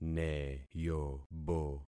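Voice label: "neyobo", concatenated syllables; Affixing experiment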